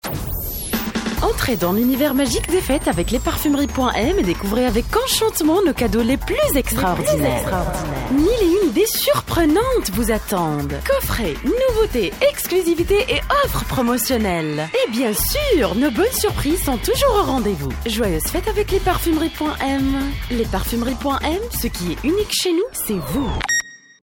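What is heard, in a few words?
french tunisian female commercial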